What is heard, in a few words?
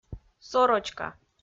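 "сорочка", Komi, female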